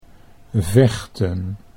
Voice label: "vechten", Dutch